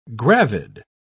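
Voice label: "gravid", British English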